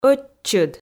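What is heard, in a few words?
"ӧтчыд", Komi, female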